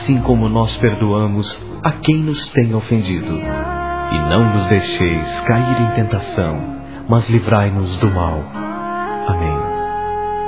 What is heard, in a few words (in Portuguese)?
com voz pai nosso declamado